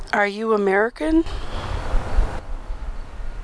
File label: miner american